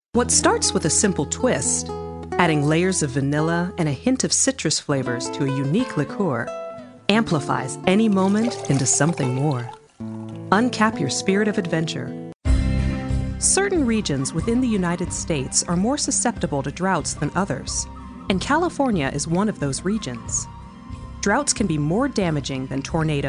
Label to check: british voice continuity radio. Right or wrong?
Wrong